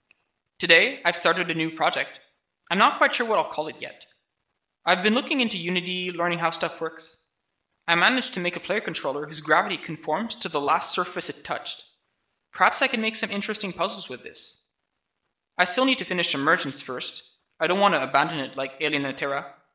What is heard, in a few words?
voice, narration